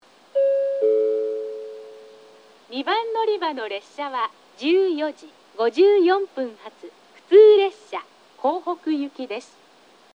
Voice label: 2番のりば停車中放送; 普通 江北行き; takeo onsen

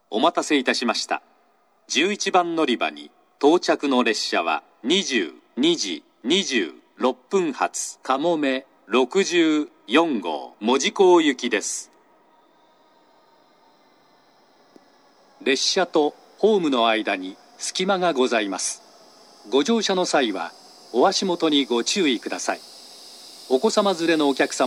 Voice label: 11番のりば接近放送; かもめ64号 門司港行き; 列車がホームに差し掛かったら放送が流れます。; shin omura

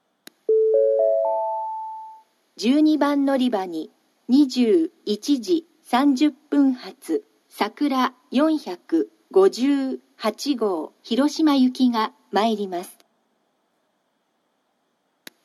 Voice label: 12番のりば予告放送, 新幹線ホーム; 新鳥栖; さくら458号 広島行き

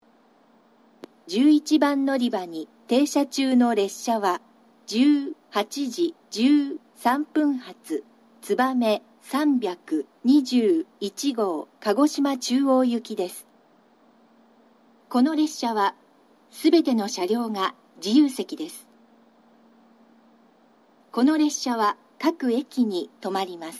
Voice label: 11番のりば停車中放送; つばめ321号 鹿児島中央行き; 熊本地震に伴う、新水俣折り返しの暫定ダイヤで運転された時のもの; shin minamata

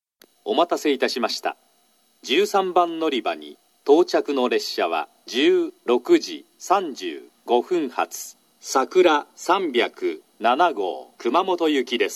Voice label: 13番のりば接近放送, 新幹線ホーム; 新鳥栖; さくら307号 熊本行き

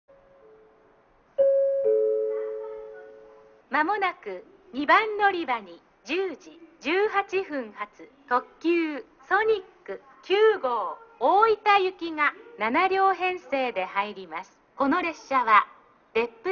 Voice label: 2番のりば予告放送; ソニック9号 大分行き; 中津; 別府でにちりん号と接続

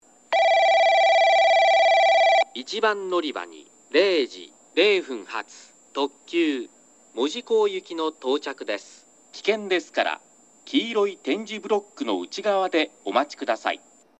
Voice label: 1番のりば接近放送（きらめき 門司港行き）; 小倉方面

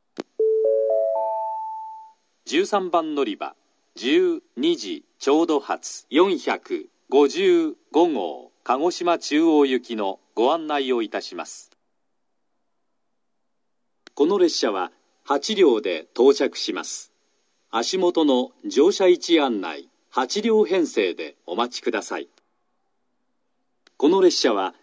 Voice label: 13番のりば案内放送, 新幹線ホーム; 新鳥栖; 団体455号 鹿児島中央行き; 全車指定席

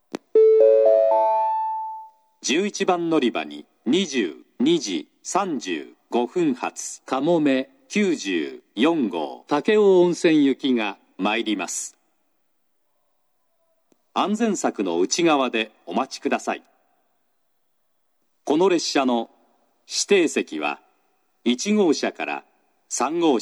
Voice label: 嬉野温泉, 11番のりば接近予告放送; かもめ94号 武雄温泉行き

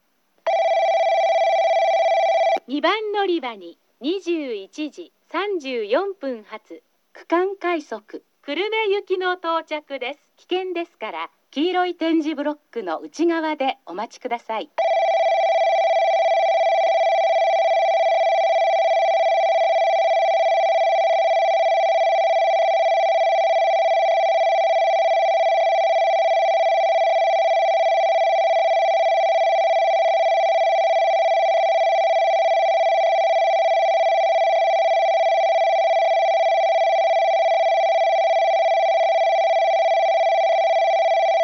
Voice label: 接近放送＋ベル 女声（区間快速の放送。）; 女声・男声共にパーツがJACROS詳細型と異なるのが特徴。

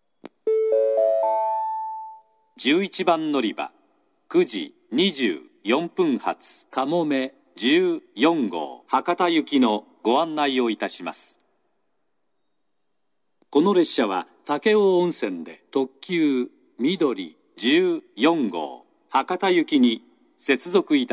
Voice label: 11番のりば案内放送, 男声, 西九州新幹線ホーム 自動放送; 11番のりば案内放送（かもめ14号 博多行き）※武雄温泉で、みどり14号に接続; 15分前、9分前の2回流れます。

